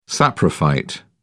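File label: "saprophyte", British English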